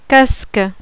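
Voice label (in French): "CASQUE", French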